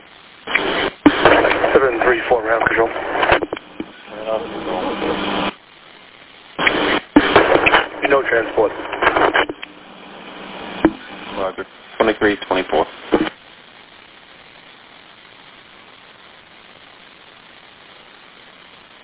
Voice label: Police Radio